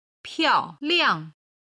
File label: "piaoliang", Chinese